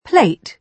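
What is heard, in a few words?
"plate", British English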